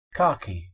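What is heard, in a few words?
"khaki", English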